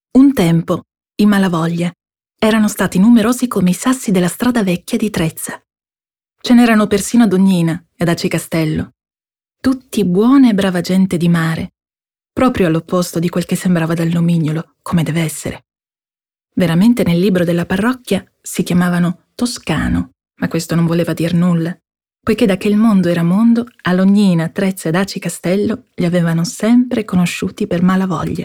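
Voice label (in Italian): Descrittivo, voci femminili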